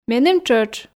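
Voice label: Komi, female; меным тшӧтш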